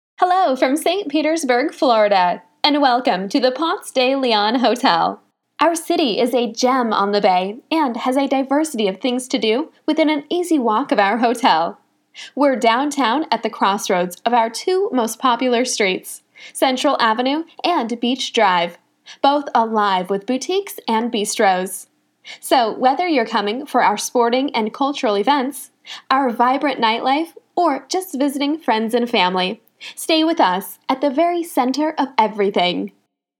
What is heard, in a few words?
Female Voiceover